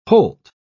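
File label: "holt", British English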